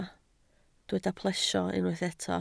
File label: "plesio", Welsh